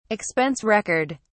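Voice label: "expense record", English, British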